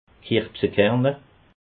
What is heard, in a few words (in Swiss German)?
"kirbsekerne", alsace